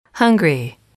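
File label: "hungry", English, female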